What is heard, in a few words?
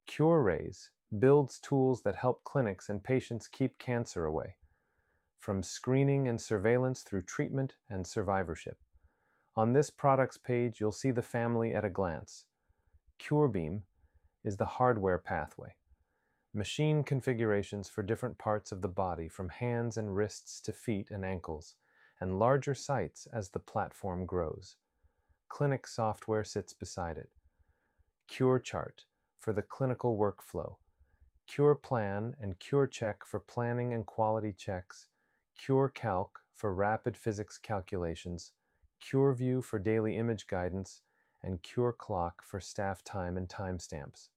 explainer; invest products